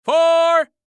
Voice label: Sports Voice, Sports Voice Male Golf Fore; Broadcast; Sports Voice Clip; Blastwave